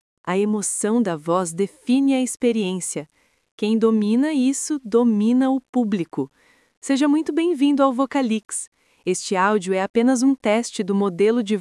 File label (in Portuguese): Narração Desesperado